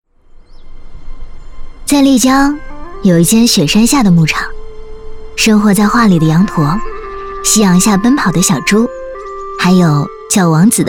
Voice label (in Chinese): 女 文艺 轻松自然 风景旅行类